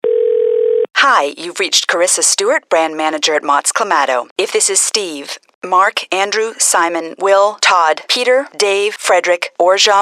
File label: commercial female